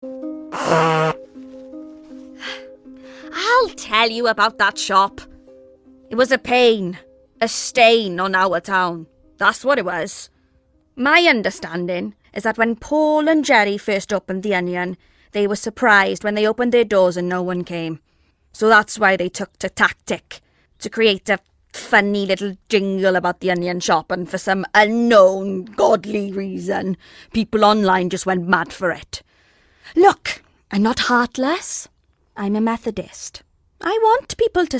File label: Drama; regional accents